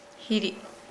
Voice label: Nukuoro; choose